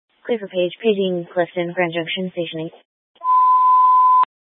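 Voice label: Fire Dispatch, scanner audio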